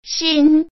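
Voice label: "sin", Hokkien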